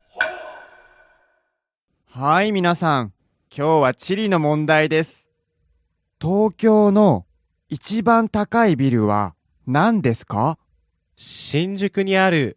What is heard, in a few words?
dialog; JapanesePod101; Beginner Season 1